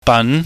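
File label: "ban", Cantonese, mid rising tone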